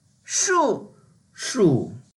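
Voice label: "shu4", Mandarin Chinese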